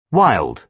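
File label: "wild", British English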